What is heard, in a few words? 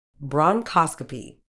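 "bronchoscopy", British English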